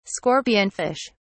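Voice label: "scorpionfish", British English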